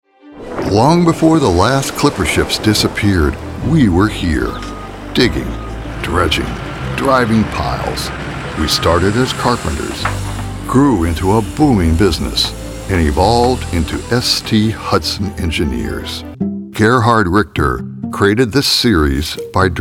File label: Narration